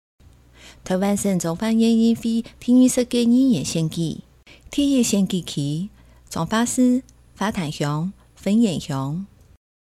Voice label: 前言 客語; 彰化縣; 縣議員; 第一選區; 有聲公報

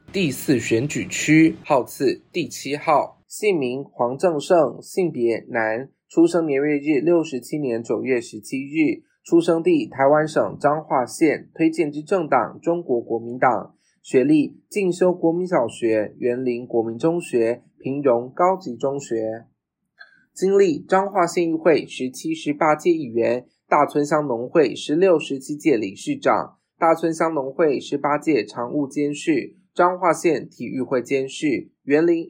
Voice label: 國語; 彰化縣; 有聲公報; 縣議員; 第四選舉區

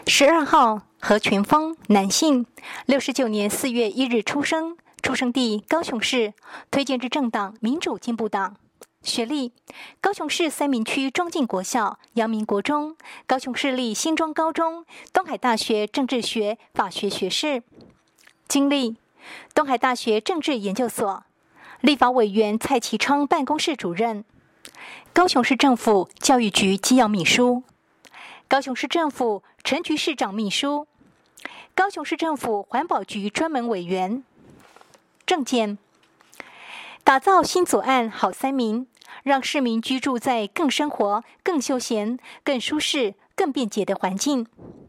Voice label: 國語, 有聲選舉公報; 高雄市; 高雄市市長議員有聲選舉公報; 第七選區

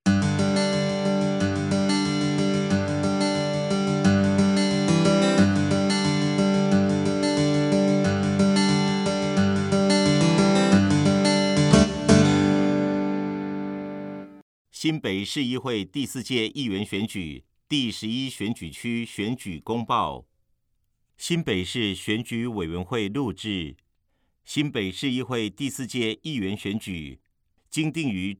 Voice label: 國語; 新北市; 有聲公報; 市議員

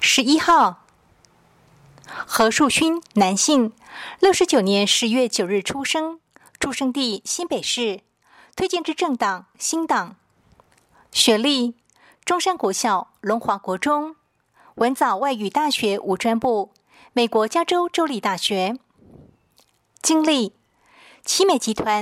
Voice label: 國語; 第四選區

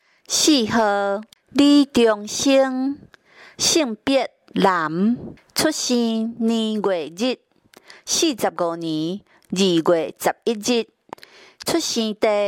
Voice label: 台語; 第二選區

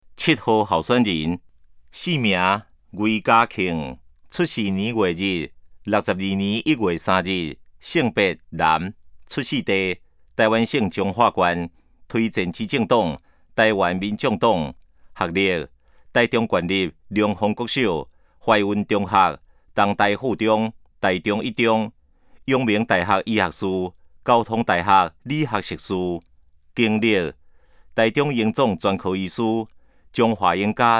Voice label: 台語; 臺中市; 有聲公報; 市議員; 第03選區

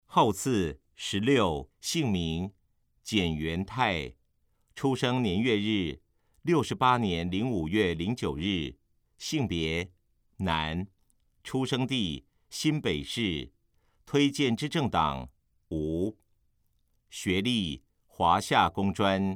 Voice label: 國語; 新北市; 有聲公報; 市議員; 第8選區

